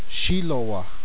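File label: red, spoken in Shiwi; Red (South); Zuni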